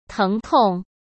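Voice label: "疼痛", Mandarin Chinese; chinese flashcards; hsk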